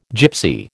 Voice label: "Gypsy", American